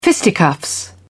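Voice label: "fisticuffs", British English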